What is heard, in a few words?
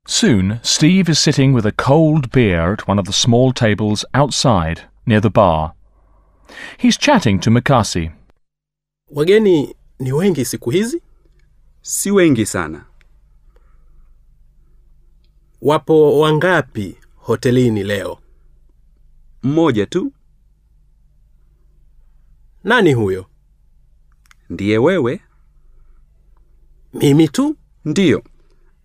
A Good Room Dialog, Swahili; Teach yourself Swahili audio